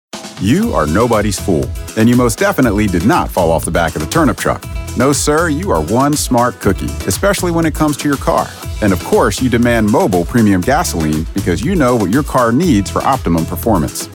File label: Commercial Upbeat